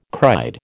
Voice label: "cried", American